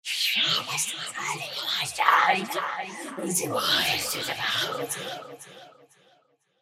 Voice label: Creepy Whisper Mucho Phaser FX